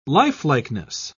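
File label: "lifelikeness", British English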